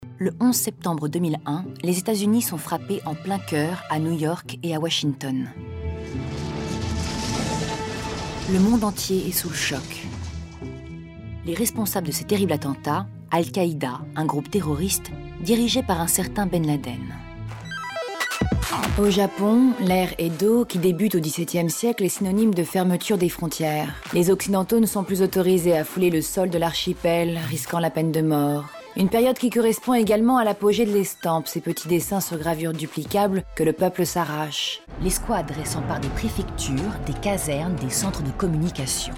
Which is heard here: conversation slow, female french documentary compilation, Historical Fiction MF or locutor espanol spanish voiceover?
female french documentary compilation